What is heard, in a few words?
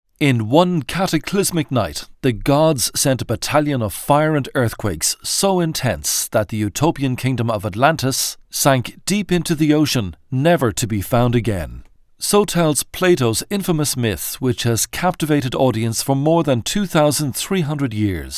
documentary